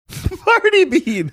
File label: laughing saying party bean